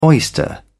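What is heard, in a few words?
"oyster", British English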